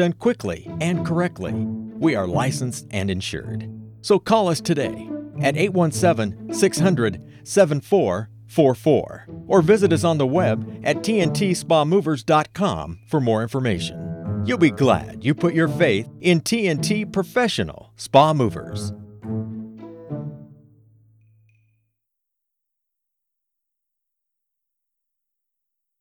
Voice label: with Music; TNT Spa Movers